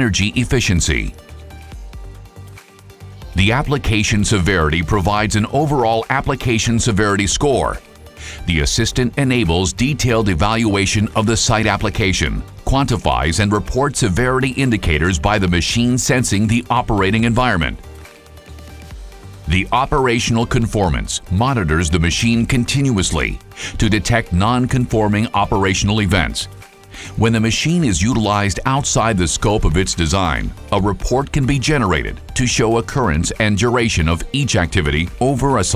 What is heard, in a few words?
Product video s Zakelijk